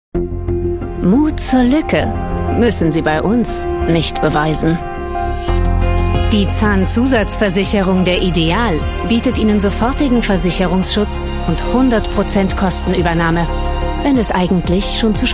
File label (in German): Werbespot; IGK